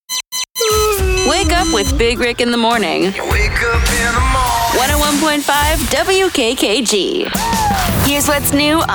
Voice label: country imaging